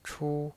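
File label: "chu", Mandarin Chinese pinyin voice, first tone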